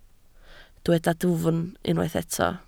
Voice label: "dwfn", Welsh

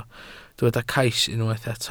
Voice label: "cais", welsh

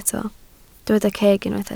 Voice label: "ceg", Welsh

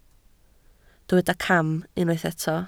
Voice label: "cam", Welsh

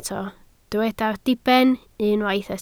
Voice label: "diben", Welsh

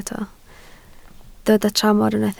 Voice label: "tramor", Welsh

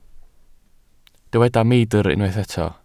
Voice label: "meidr", Welsh

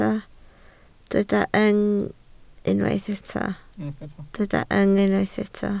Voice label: "yng", Welsh

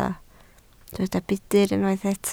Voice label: "budr", Welsh

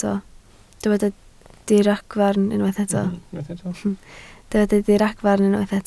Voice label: "diragfarn", Welsh

